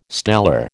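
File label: "stellar", American English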